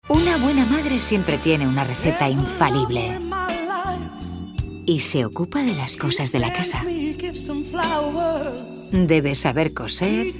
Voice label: female spanish bank comercial; ideasonora